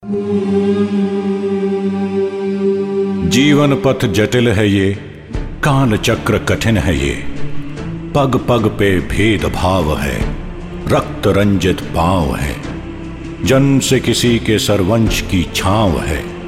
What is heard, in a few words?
narration hindi